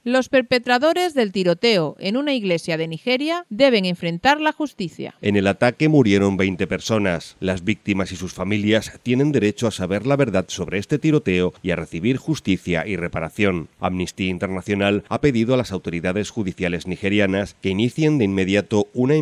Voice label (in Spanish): informativo nigeria tiroteo iglesia